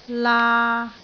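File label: "laa3", Cantonese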